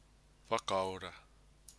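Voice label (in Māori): "whakaora", Māori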